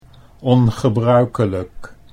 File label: "ongebruikelijk", Dutch